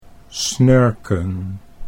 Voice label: "snurken", Dutch

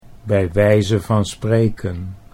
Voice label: bij wijze van spreken, Dutch

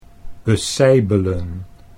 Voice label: "beseibelen", Dutch

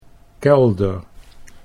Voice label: "kelder", Dutch; 'cellar'

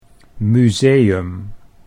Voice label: "museum", Dutch

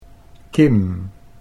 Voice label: "kim", Dutch

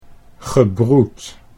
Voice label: "gebroed", Dutch

